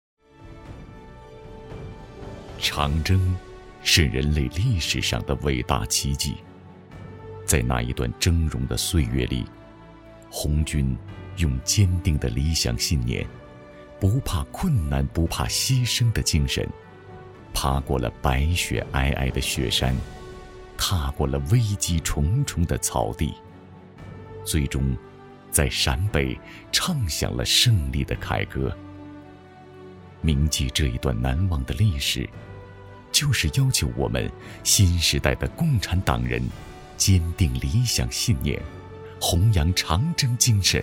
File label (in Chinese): 男 专题 七一大气厚重; 新时代新长征